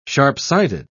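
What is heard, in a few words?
"sharp-sighted", British English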